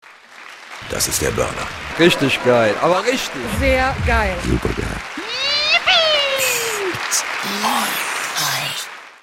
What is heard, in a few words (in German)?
wecker motivierend; unserding